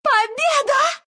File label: win, ru; dota2